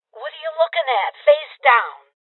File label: Order To Surrender Female Old; rebel cops 2019 gamerip; Voices